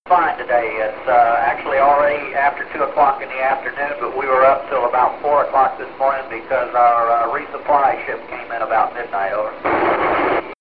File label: MIR talking about waiting for a resupply spaceship; MIR 1995 Thagard